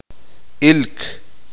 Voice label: uspanteko; ver

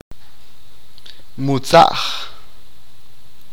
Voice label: group, achi

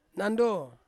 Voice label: "nando", Ansus; banana

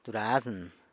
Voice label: "durazn", San Lucas Quiavini; peach

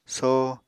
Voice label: "soh", Apatani

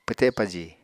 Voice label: "pwte paji", Apatani

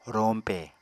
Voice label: "roñpe", apatani

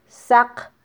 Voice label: "saq", mam

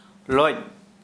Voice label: Santali penis

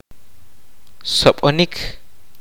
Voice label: "stab", kaqchikel

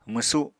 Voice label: "mwsu", Apatani

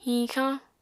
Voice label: "hiñxañ", Apatani

